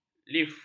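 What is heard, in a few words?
"lif", Malay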